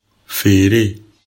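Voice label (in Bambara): "feere", Bambara; action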